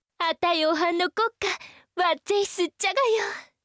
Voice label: 貴音好きです 鹿児島

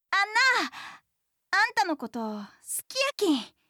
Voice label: 好きです 香川; 真

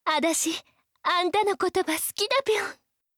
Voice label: 好きです, 青森; 貴音

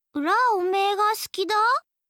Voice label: 好きです 福井; 春香

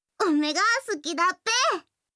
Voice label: 好きです 栃木; やよい

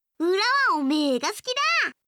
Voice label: 好きです 福井; 亜美

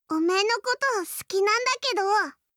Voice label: 好きです 茨城; 伊織

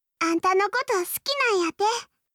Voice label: 好きです 岐阜; 伊織

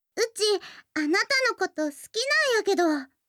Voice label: 好きです 三重; やよい

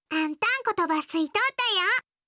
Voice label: 好きです 熊本; 亜美

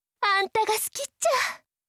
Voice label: 好きです, 大分; 貴音

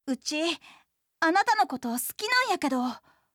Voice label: 好きです 三重; 真

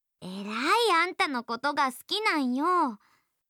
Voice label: 好きです 徳島; 律子

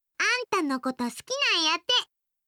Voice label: 好きです 岐阜; 亜美

